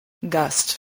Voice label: "gust", spelling